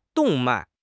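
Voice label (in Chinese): "动脉", Mandarin Chinese; HSK6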